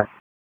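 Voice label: Raleigh Police North District Tactical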